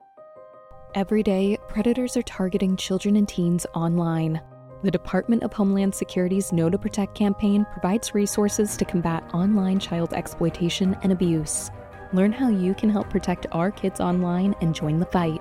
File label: Radio Ad; DHS; Know2Protect; department homeland security